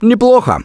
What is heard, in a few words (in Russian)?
Nice Job, left4dead2 russian, Survivor Voice; Gambler